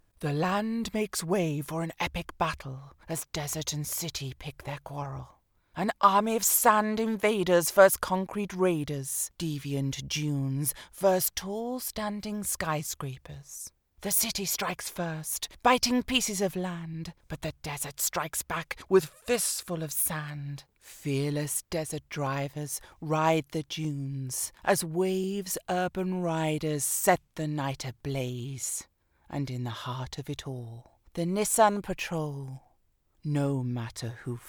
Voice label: Automotive Commercial